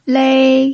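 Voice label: "lei", Mandarin Chinese pinyin, tone 1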